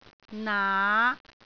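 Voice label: "naa5", Cantonese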